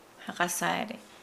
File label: Nukuoro; think